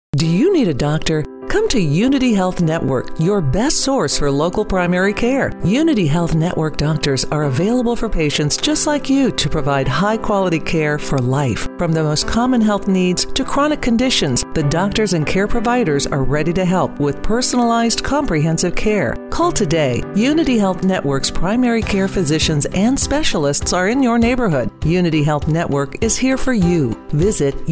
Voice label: commercial